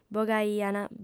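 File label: "bogaiant", female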